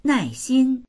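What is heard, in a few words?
"nai4xin1", Mandarin Chinese; 耐心; 根気がよい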